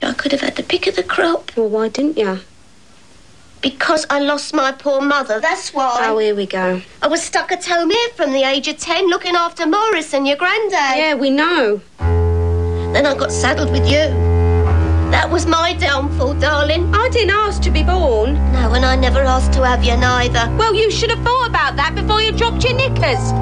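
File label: British London working class female, dialect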